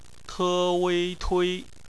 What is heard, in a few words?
"tui", Chinese; phonetics